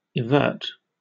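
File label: "evert", British English; pronunciations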